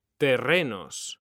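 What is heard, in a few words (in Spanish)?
"terrenos", Spanish; mekanta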